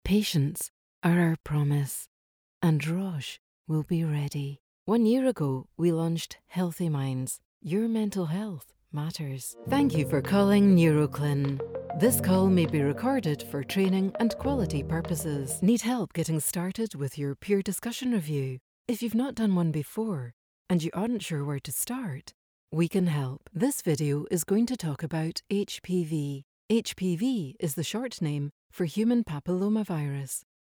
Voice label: english scottish medical narration